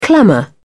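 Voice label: "clamour", British English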